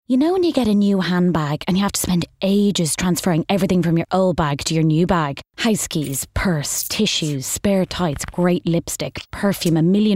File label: COMMERCIAL; Vodafone